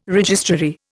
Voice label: "registrary", Indian